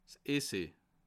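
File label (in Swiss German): "essay", Bas Rhin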